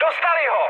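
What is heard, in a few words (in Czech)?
Czech aircraft destroyed, mood medium; speech; War Thunder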